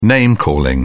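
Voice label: "name calling", British English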